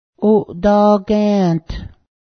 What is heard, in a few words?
mortar, Cayuga